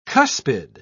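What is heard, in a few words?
"cuspid", British English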